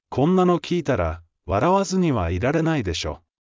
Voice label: anime, Japanese Anime and Internet Slang Expressions of ‘Haha! That’s Funny!